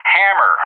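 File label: "Hammer", English; JTAC; Callsign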